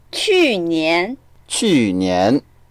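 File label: "qu4nian2", Mandarin Chinese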